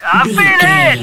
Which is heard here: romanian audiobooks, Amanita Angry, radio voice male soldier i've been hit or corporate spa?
radio voice male soldier i've been hit